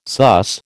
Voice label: "suss", American

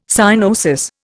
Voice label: "cyanosis", Indian

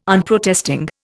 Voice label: "Unprotesting", Indian

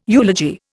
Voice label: "eulogy", Indian

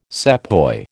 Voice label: "sepoy", American

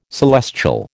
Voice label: "celestial", American

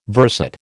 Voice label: "verset", American

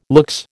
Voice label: "luxe", American

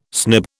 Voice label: "snib", British

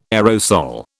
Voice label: "aerosol", British

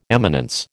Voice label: "eminence", American

